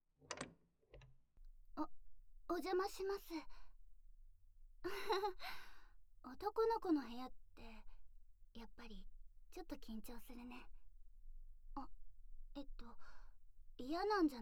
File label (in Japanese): ASMR; とろけるような快楽を与えられての無知生殺し